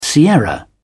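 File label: "sierra", British English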